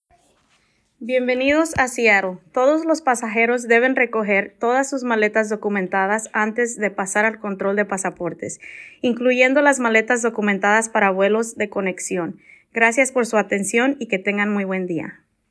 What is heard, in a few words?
Spanish Bags First; seattle; portofseattle; conexion. Gracias por su atencion y que t